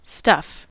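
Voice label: "stuff", US English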